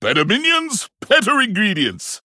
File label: Male Pandaren After Shop Upgrade